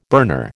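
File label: "burner", American English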